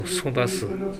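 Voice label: "sodasu", Minamiyamagata dialect Japanese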